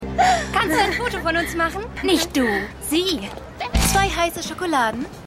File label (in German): Maedchen Kellnerin; Keine Gnade Fuer Dad